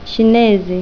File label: chinese, interlingua